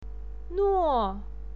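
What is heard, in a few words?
"nuo", Mandarin Chinese, fourth tone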